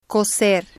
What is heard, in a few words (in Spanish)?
"cocer", Spanish